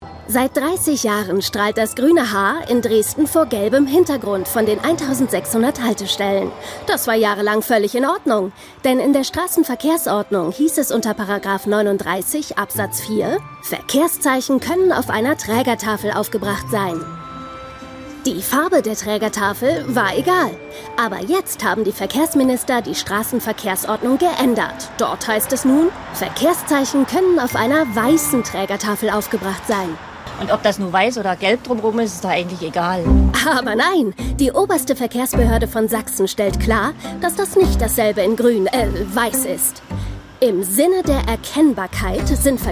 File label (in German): Voiceover; Extra 3